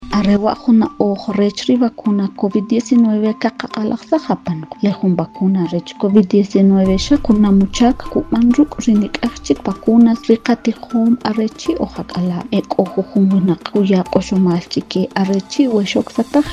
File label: SPOT KICHE